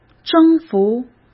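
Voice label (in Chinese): "征服" Mandarin Chinese; chinese-flashcards; hsk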